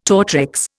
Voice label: "tortrix", Indian